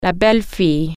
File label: daughter in law, french